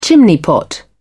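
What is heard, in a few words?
"chimney-pot", English, British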